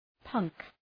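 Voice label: "punk" English word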